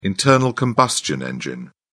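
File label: "internal-combustion engine", British English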